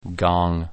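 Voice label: "Gong", English name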